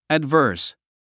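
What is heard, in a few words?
"adverse", English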